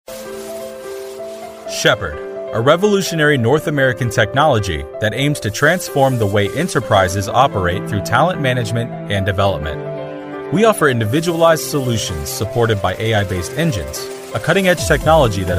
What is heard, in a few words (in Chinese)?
外籍男英 外语 美式英语 科技感 科技